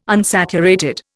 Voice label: "unsaturated", Indian

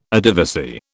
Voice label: "Adivasi", British

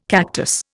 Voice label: "cactus", Indian

